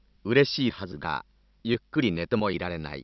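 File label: Japanese Male, Synth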